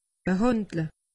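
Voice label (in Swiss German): "behandle", Alsatian; medecine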